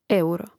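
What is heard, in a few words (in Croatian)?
"euro", hrvatski; mreznik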